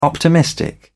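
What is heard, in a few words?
"optimistic", British English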